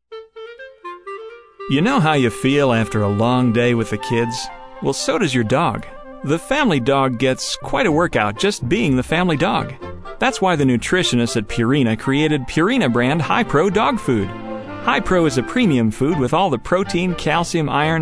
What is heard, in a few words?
Commercial